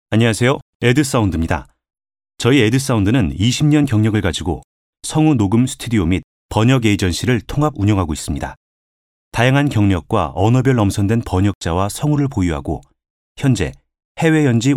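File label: Korean male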